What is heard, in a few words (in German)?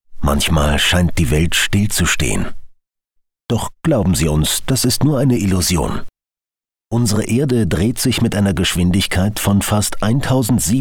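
image d, Sprecher; Sprecher in Berlin; Muttersprache Deutsch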